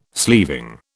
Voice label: "sleeving", British